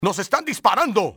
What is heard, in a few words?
under fire, spanish soldier; enemy; XBOX